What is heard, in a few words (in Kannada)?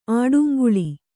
"ಆಡುಂಗುಳಿ", kannada